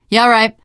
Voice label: yes, teengirl; source engine; left 4 dead 2; survivor